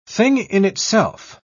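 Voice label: "thing-in-itself", British English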